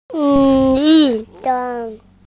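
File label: "lv4 deng1", Mandarin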